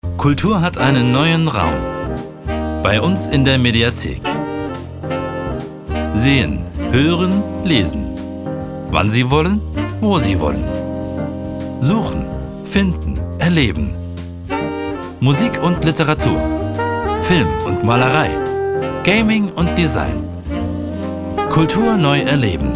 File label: german podcasts